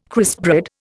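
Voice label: "crispbread", Indian English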